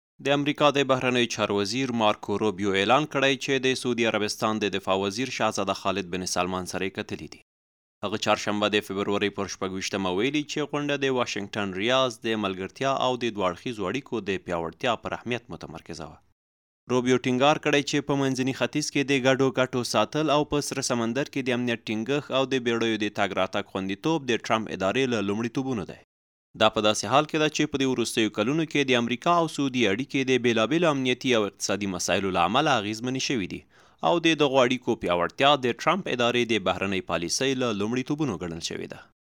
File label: MALE PASHTO